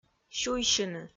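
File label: "сюйсьыны" Komi, female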